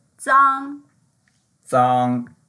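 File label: "zang1", Mandarin Chinese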